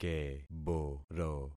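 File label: "keboro", concatenated syllables; Affixing experiment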